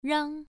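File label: "rang", Mandarin Chinese syllable, first tone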